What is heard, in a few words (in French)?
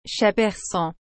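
"chat persan", French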